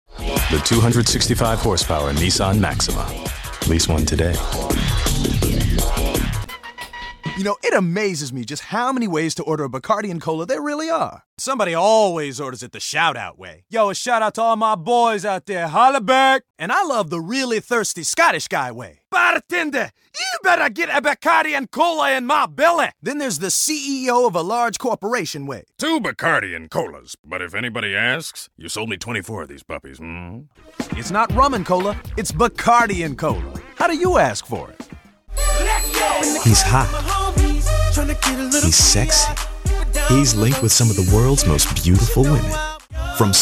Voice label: commercial